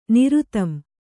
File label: "ನಿರುತಂ", Kannada